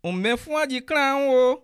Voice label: get well soon, Bassa